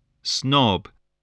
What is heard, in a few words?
"snob", English voice